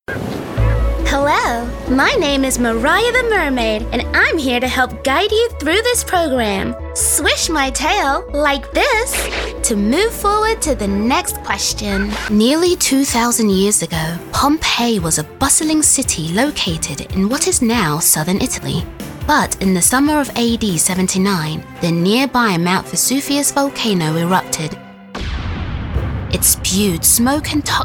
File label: Kids Narration